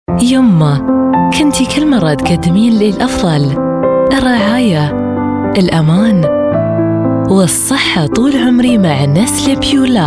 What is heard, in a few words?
arabic voice over female commercial